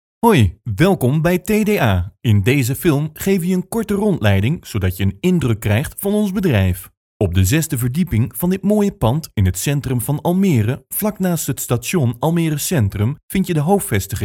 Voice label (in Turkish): Hollanda Erkek Felemenkce; seslendirme; hollandaca